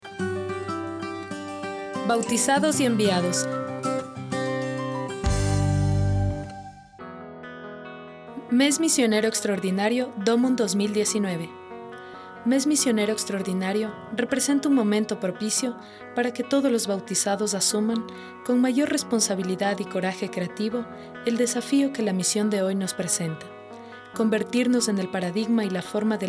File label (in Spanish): Domund espanol; MDomund 2019